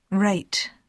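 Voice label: "rate", English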